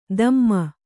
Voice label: "ಧಮ್ಮ", Kannada

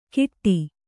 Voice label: "ಕಿಟ್ಟಿ", Kannada

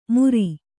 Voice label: "ಮುರಿ", Kannada

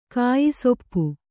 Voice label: "ಕಾಯಿಸೊಪ್ಪು", Kannada

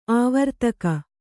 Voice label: "ಆವರ್ತಕ", Kannada

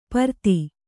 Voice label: "ಪ್ರತಿ", Kannada

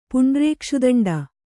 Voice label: "ಪುಂಡ್ರೇಕ್ಷುದಂಡ", Kannada; puṇḍrēkṣu daṇḍa; noun

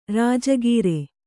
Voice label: "ರಾಜಗೀರೆ", Kannada

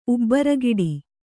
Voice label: "ಉಬ್ಬರಗಿಡಿ", Kannada; ubbaragiḍi; noun; a hurled spark.

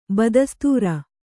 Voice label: "ಬದಸ್ತೂರ", Kannada

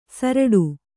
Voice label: "ಸುರುಟಿ", Kannada

